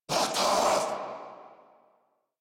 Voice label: Attack Corrupted Grineer Male

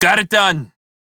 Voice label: crew task done, male; Dialog; en; Warframe; Railjack Crew; Red Veil

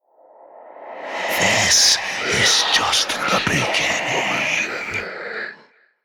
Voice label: Whispers; Warframe; Golem Quest; Golem Trial Monster; Jordas

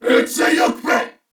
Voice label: Player Spotted, Corpus Venus Male; Dialog; Barks; Battle; Warframe; CorpusVenusMaleA

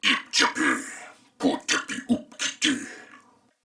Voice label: Patrol Male, en; Dialog; Barks; Battle; Corpus Venus; Warframe; CorpusVenusMaleB

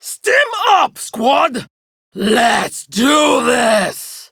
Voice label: Attack Prime Grineer Female; Tau; Battle Barks

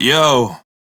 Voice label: Crew Greet, Male; Dialog; en; Warframe; Railjack Crew; Red Veil

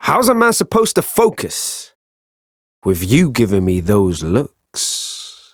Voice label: Ambient Barks, en; Warframe; Mall Romance; Quincy

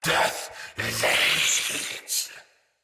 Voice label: Death Knight Male; Battle; Barks; Dialog; Mendicant

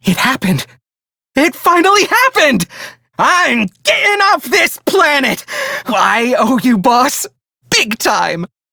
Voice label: Hired, Male; Dialog; Warframe; Railjack Crew; Perrin Sequence

